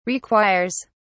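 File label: "requires", British English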